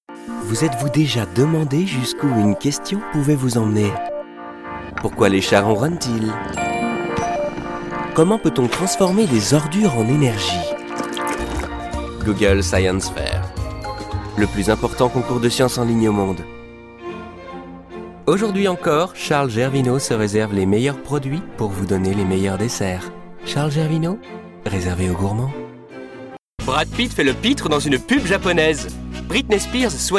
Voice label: french commercial

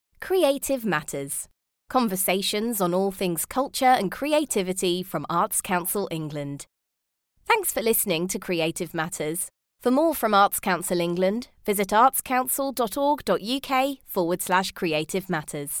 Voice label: english british podcasts

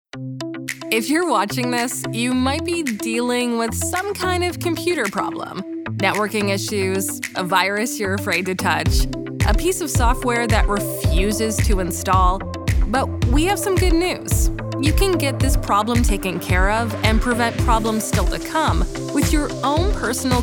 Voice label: english canadian explainer videos

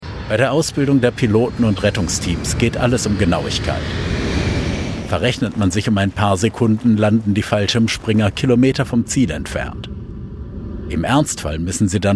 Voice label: german documentaries

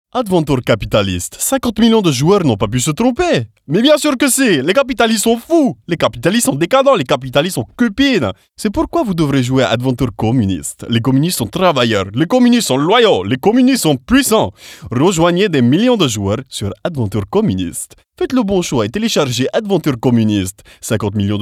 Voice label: french cartoon animation

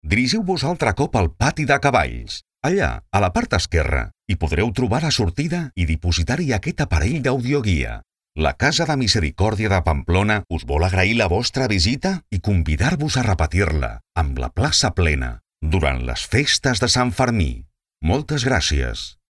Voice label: catalan narration

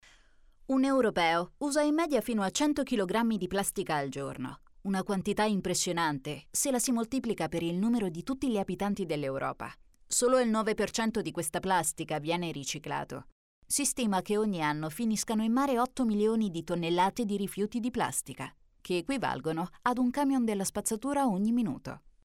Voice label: italian corporate videos

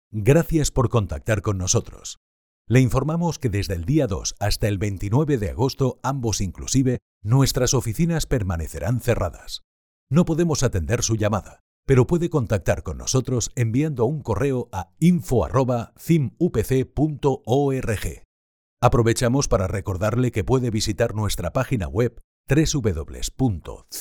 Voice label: Spanish IVR

